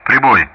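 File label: Speech Sound Russian; DCS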